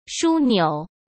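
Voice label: "枢纽", Mandarin Chinese; chinese-flashcards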